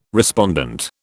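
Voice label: "respondent", British English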